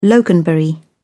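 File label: "loganberry", British English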